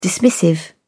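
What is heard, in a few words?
"dismissive", English, British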